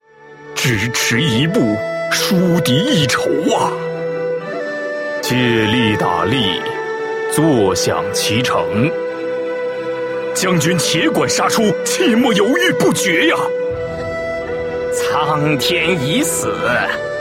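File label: male middle-aged and elderly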